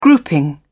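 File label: "grouping", British English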